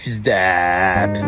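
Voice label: deeeeeep, deep voice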